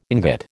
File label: "ingot", American